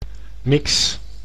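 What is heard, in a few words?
"mix", Dutch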